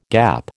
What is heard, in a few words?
"gap", American